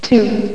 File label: "two", race; rally